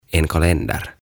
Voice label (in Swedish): "En Kalender" "Kalenteri"